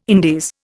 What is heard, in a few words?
"Indies", Indian